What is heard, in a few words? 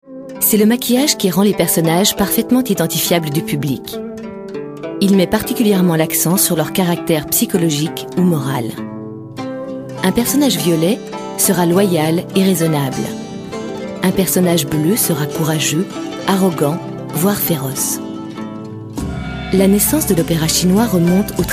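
French informative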